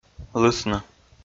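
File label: "лысны" Komi, male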